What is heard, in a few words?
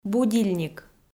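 "будильник", Komi, female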